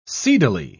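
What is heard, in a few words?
"seedily", British English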